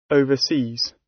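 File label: "overseas", British English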